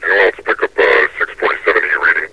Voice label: anyone pick up, metrocop zombie; scmod

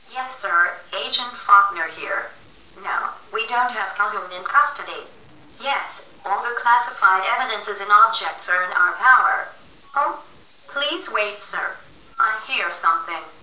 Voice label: ops radio

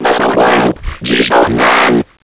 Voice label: gibberish

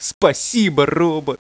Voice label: spasibo robot

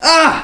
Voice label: fear

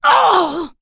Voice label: Critical hit, elven female

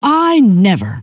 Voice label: I'd never, scientist female

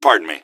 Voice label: pardon me, gasmask; scmod

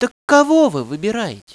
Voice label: dialog

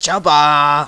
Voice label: viet good morning madam

